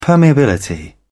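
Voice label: "permeability", British English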